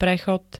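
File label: "prechod", Slovak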